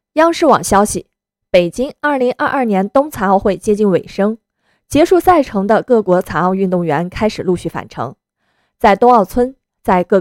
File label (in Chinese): 央视新闻播报